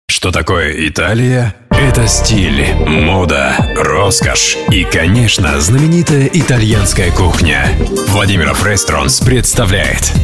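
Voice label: Russian Male Image Corporate, voice over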